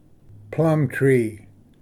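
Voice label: "plum tree", English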